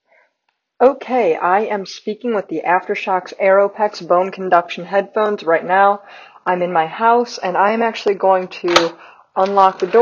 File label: Aftershokz Aeropex microphone IDEAL Wind